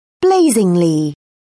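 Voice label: "blazingly", British English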